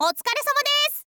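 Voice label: otsukaresama desu, Japanese, girl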